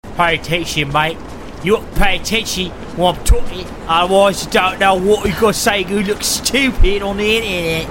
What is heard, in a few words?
cockney